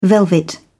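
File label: "velvet", British English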